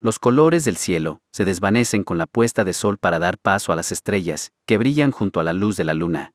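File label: Mexican Spanish; iOS 26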